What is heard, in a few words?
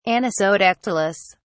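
"anisodactylous", British English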